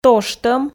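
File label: "тоштӧм", Komi, female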